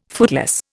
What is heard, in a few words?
"footloose", Indian